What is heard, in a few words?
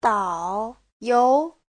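"dǎoyóu", Chinese; 导游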